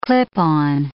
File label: "clip-on", English